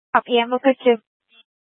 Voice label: Fire Departments, scanner